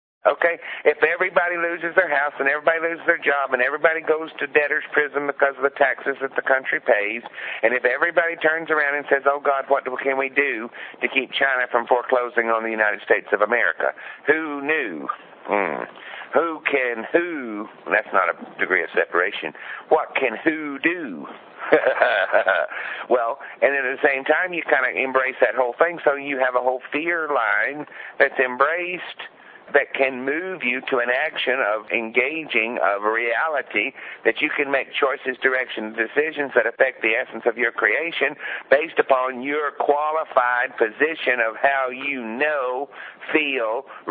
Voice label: rant